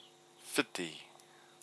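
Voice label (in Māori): "whiti", Māori word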